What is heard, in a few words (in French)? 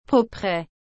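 "propret", French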